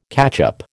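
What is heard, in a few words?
"catchup", American English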